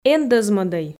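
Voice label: "эн дӧзмӧдӧй", Komi, female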